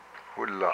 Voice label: "my hand", hupa